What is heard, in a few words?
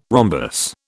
"rhombus", British